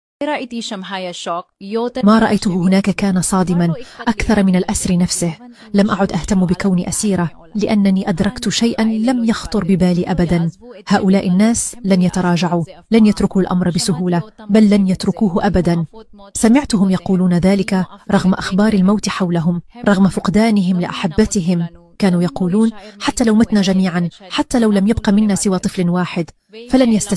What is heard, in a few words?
statement of released hostage (Hebrew to Arabic; with English subtitles